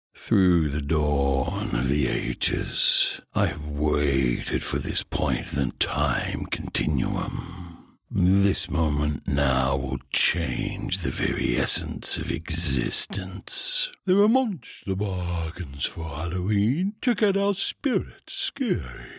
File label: Ageless sage and ghoulish goblin characters